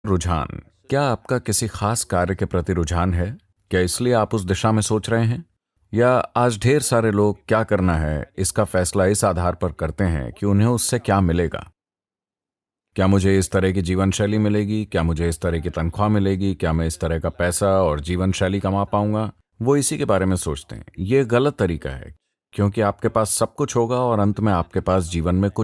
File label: Voice Over